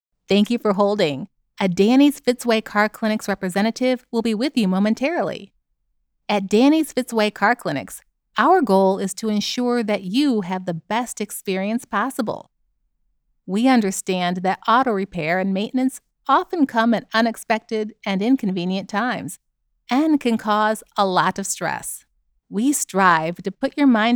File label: Phone Caring Informative Natural